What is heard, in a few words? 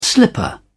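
"slipper", British English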